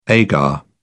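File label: "agar", British English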